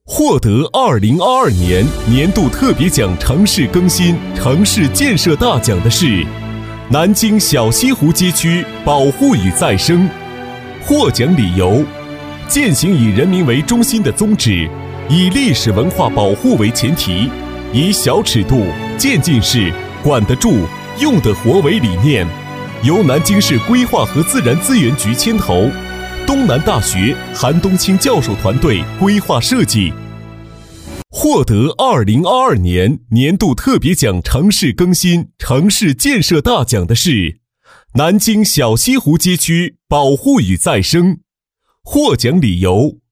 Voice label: 男 颁奖 年度颁奖 激情有力, 年轻气质 幽默搞怪; 男D2-颁奖【年度颁奖 激情有力】

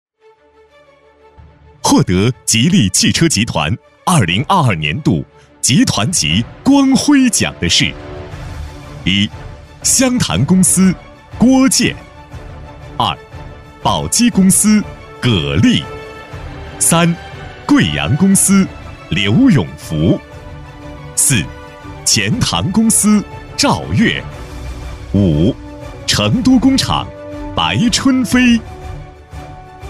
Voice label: 男 现场颁奖 激情有力; 高端大气 大气浑厚; 吉利颁奖

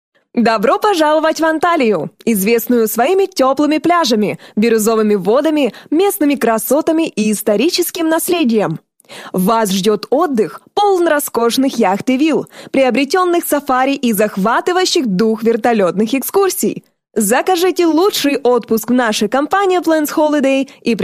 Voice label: 俄语女声

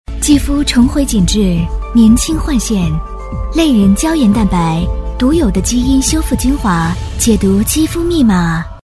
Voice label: 女 品牌广告; 质感磁性; 品牌广告【敕荣肌肤密码】

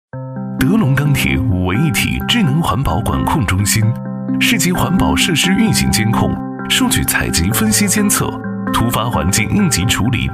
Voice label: 男, 宣传片配音, 科技年轻; 男29-时尚大气 科技时尚; 凌霄物联网

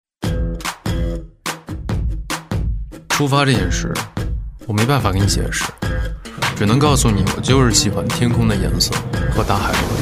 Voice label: 男 MG个性素人感, 时尚质感 自然诉说; dearboyfirend男士香水

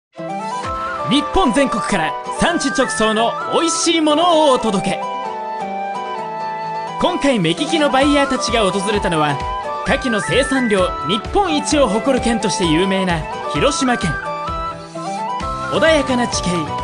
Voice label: 日语男声 多种风格

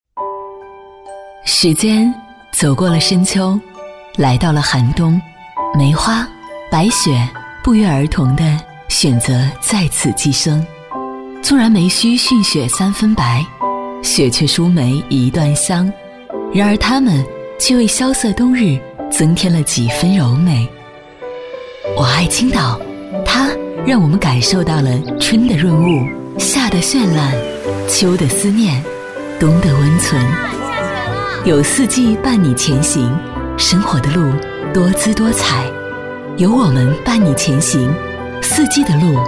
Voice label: 女 电台片花【交通广播 磁性温柔】; 质感磁性

